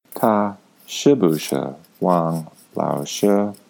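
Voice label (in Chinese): "ta shi bu shi Wang laoshi", Mandarin Chinese; Student B; Chinese pronunciation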